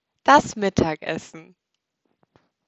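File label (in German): "Mittagessen", German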